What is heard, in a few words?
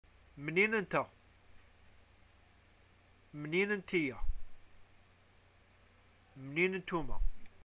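Algerian where are you from, arabic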